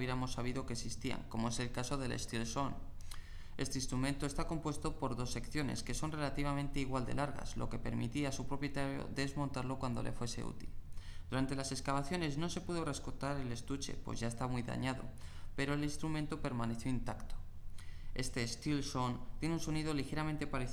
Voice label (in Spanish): instrumentos musicales spanish